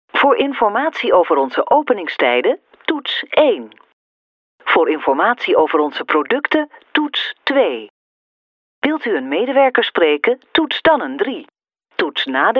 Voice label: nl ivr